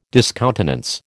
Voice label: "discountenance", American

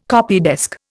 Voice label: "copydesk", Indian

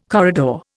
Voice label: "corridor", Indian

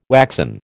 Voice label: "waxen", American

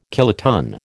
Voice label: "kiloton", American

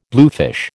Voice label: "bluefish", American

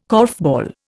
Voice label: "korfball", Indian

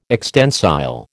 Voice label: "extensile", American

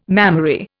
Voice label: "mammary", Indian

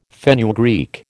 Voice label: "fenugreek", American

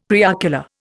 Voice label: "preocular", Indian; Dictionary

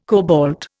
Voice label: "cobalt", Indian

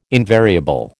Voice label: "invariable", American

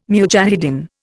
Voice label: "mujahidin", Indian

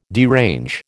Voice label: "derange", American

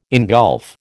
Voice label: "engulf", American

